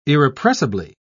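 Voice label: "irrepressibly", British English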